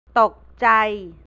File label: "frightened", Thai; ตกใจ; alarmed; adj.